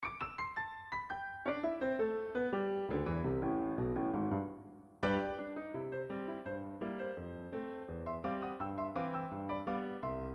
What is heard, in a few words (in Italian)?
dialetto